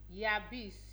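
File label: "yabis", Nigerian Pidgin, female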